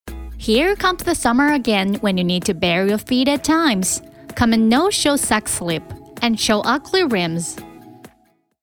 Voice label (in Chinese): 女 英-广告-宣传-袜子